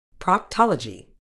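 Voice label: "proctology", medical English, British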